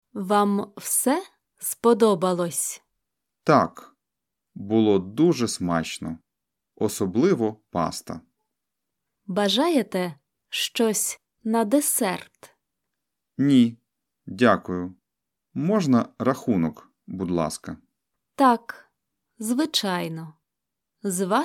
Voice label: Dialogue Slow